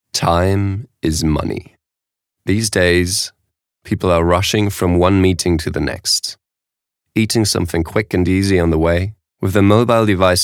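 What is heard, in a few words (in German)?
US English, Sanft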